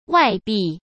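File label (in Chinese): "外币", Mandarin Chinese; hsk; chinese flashcards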